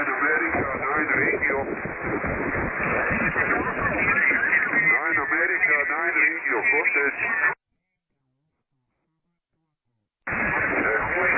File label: CQWW SSB LSB 7MHz